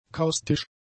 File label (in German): "kaustisch", German; adjectives